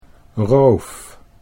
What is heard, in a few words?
"roof", Dutch